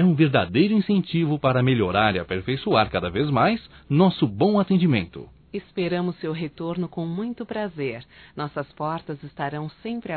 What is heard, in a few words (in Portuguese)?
SECRETáRIA DUAS VOZES; CLIENTE; Telemensagens 2017